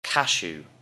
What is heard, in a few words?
"cashew", English; FRUIT